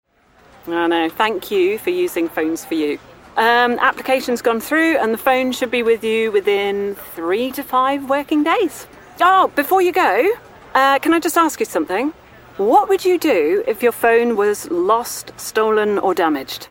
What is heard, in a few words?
narration role play